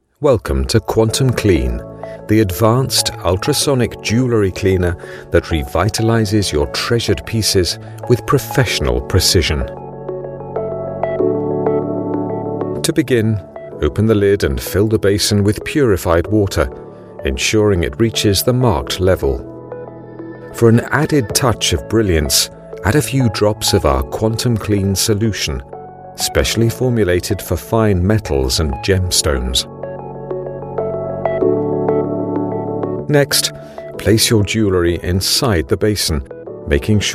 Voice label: explainer